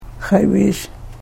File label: "xayviish", karuk